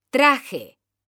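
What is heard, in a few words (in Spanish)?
"traje", Spanish, female; mekanta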